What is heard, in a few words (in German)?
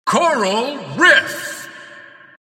ansage endboss; Crypt of the NecroDancer